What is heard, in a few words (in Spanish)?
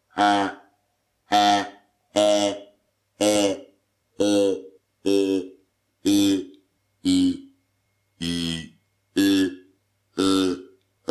ESPECTRO VOCALICO LIMPIO